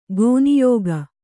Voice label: "ಕನ್ಯಕೆ", Kannada